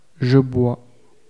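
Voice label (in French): "je bois" French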